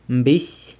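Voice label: "mbisy", stingy, San Lucas Quiaviní Zapotec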